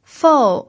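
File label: "fou", Mandarin Chinese, fourth tone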